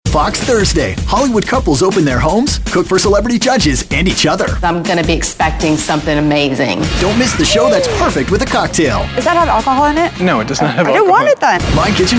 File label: Promo